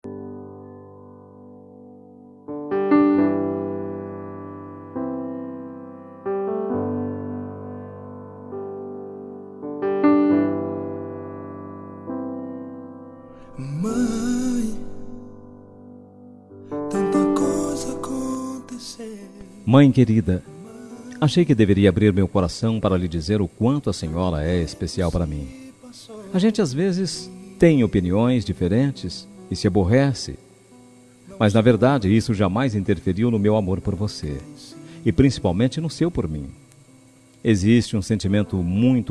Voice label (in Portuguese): homenagem de mae fem